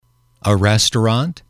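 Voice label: a Restaurant, english, male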